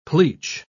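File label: "pleach", British English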